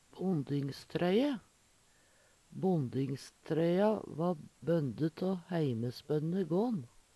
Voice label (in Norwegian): bondingstroye, Numedalsmål; Substantiv hokjønn; Personleg utstyr, klede, sko